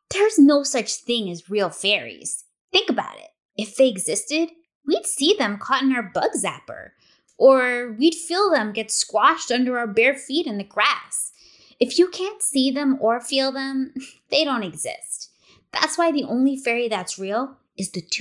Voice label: child monologue fairies